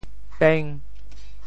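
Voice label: "peng5", Teochew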